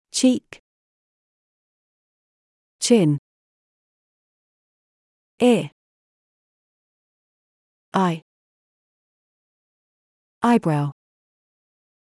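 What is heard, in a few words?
British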